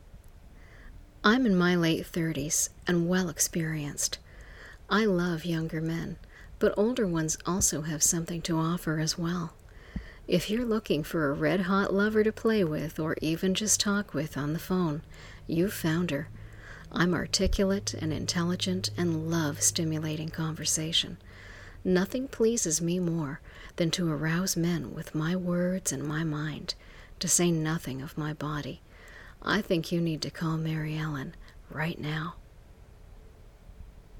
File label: voice greeting